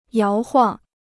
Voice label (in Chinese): "摇晃", Chinese